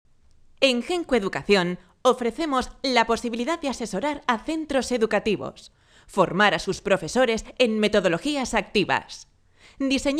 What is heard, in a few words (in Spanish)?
locución castellano; Henko